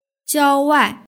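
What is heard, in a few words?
"jiaowai" Chinese word; duiniutanqin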